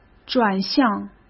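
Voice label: "转向", Mandarin Chinese; hsk; chinese flashcards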